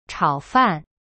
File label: "炒饭", Chinese; chao fan; cyberchinese